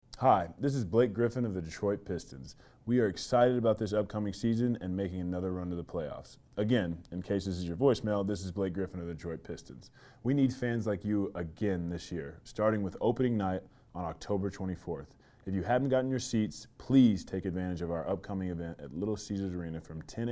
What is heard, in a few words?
Robocall